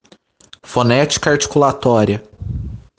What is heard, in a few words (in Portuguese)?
Dialeto